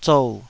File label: "zou3", taiwanese